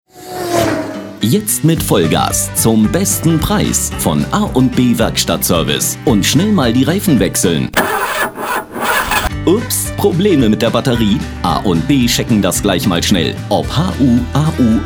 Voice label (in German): Radio POS Sprecher